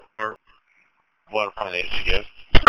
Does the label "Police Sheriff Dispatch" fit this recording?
Yes